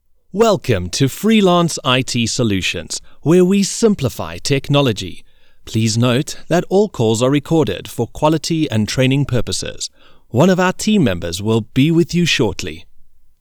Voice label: IT Solutions Telephonic Introduction

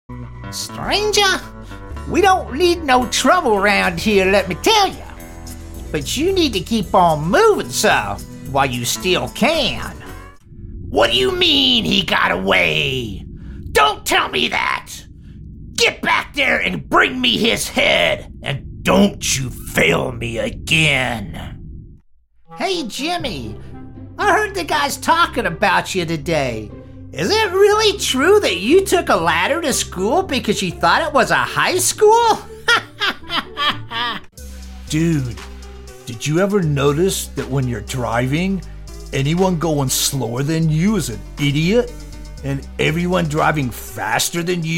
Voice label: Character, no slate

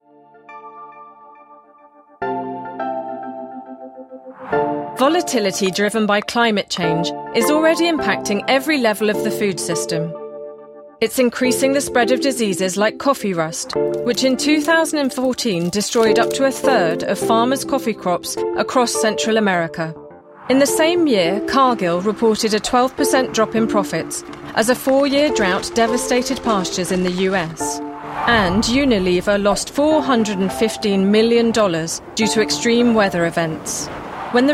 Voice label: Animation Sample

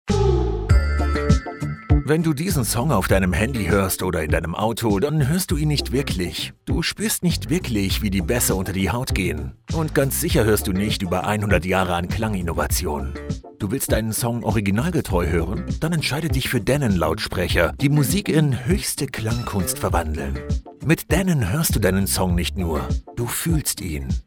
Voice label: Commercial Speaker; Denon

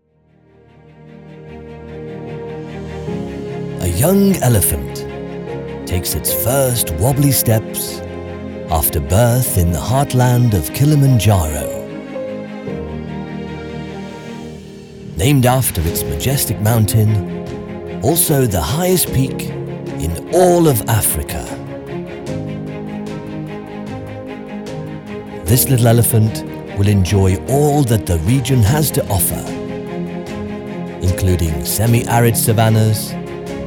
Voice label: Wildlife Documentary

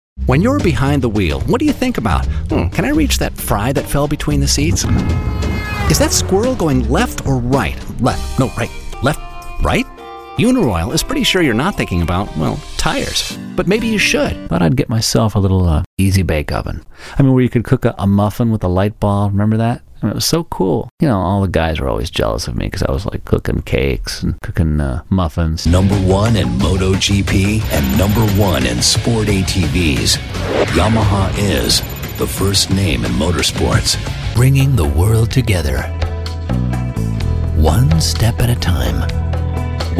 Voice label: COMMERCIAL

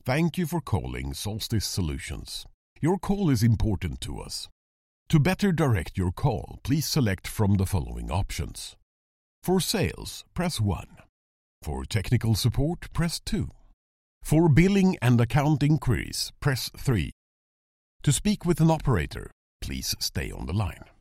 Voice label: IVR ENGLISH

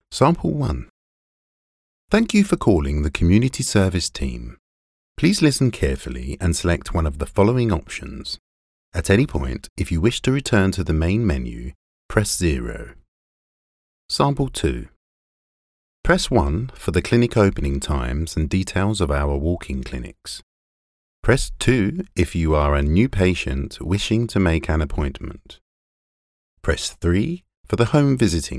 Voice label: IVR Phone

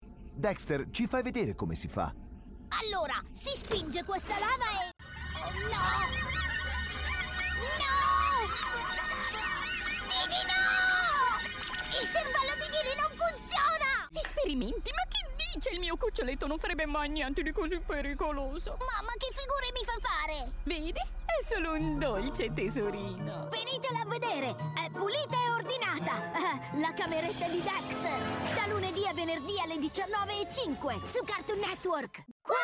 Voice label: Cartoons

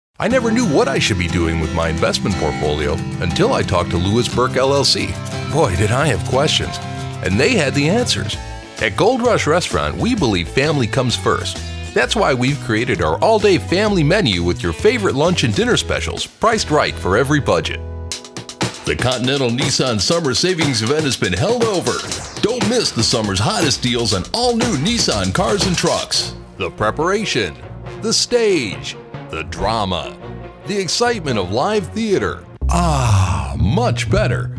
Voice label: commercial reel

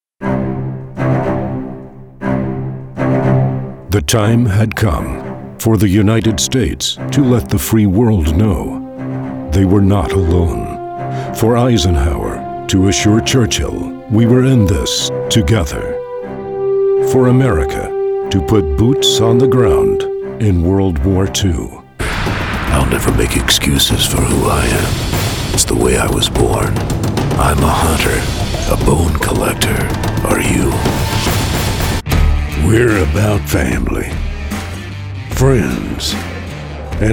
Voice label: Movie Trailer-like

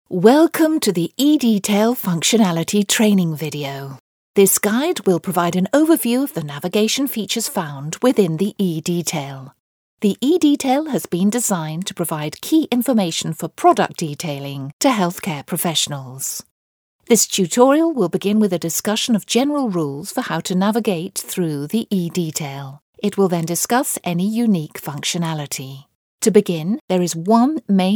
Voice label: e-learning explanations

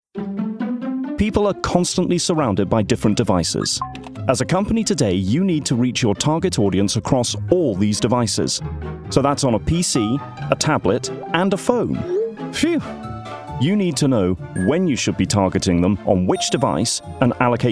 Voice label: Corporate Explainer Video

